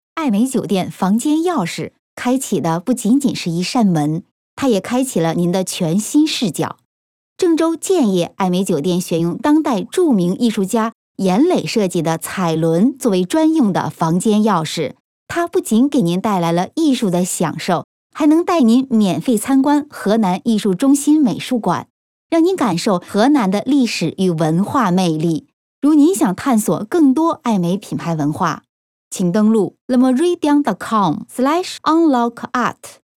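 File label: Chinese IVR; Le Meridien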